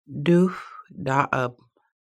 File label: medicine man, Lushootseed